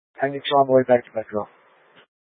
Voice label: Light Rail Emergency, scanner